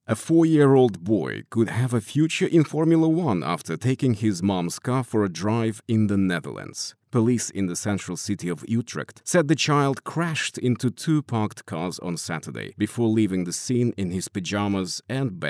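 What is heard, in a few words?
Russian Russia male English; russian voice over talent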